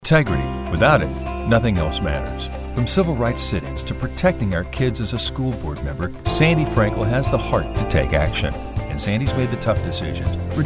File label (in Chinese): 自然诉说